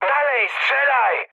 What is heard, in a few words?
attack position mood med, speech pol; War Thunder